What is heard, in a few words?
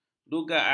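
"dugaan", Malay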